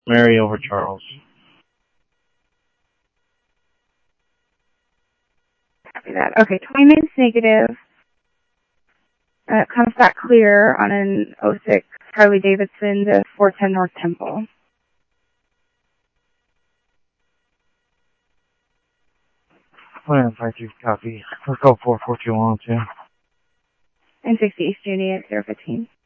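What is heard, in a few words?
Mesa Simulcast Police Department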